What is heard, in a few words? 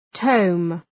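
"tome", English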